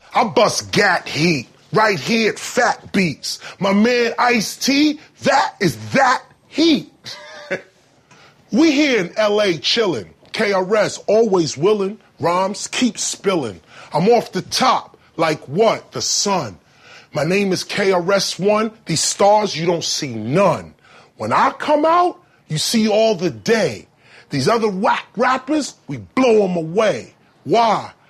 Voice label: acapella